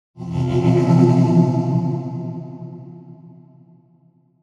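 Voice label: angry, the audio I created for the characters to signify they are speaking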